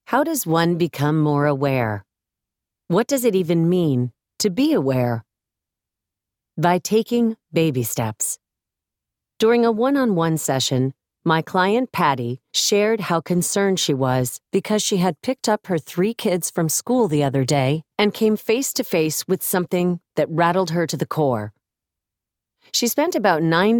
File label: Self Help Narration